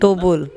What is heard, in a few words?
"Tobol", Andamanese; to hunt turtle with